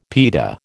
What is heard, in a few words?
"pita", American